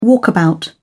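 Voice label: "walkabout", British English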